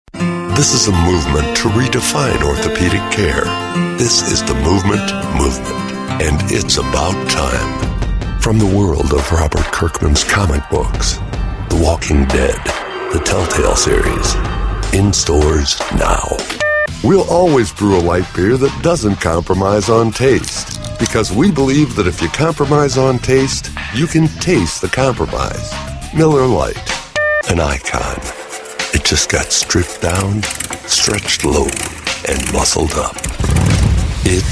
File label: english american voice over male